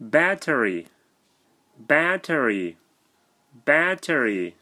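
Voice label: "Battery", English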